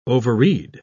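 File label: "overread", British English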